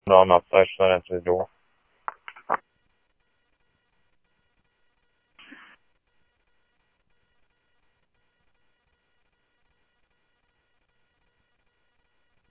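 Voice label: Phoenix police department, scanner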